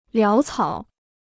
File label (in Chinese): "潦草", Chinese